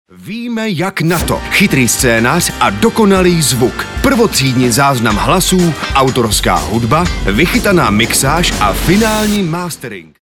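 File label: Czech, male, commercial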